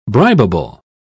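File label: "bribable", English, British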